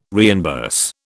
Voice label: "reimburse", British

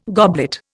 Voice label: "goblet", Indian

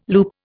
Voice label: "loop", Indian

